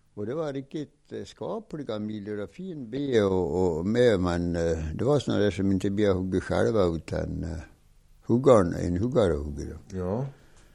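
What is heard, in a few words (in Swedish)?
Hed Västmanland, dialekter; Svenska sagor; Folkminnen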